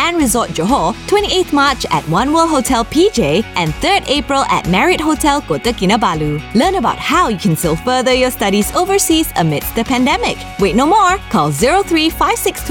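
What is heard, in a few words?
EDUCATION ENGLISH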